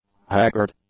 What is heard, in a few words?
"haggard", english; adjectives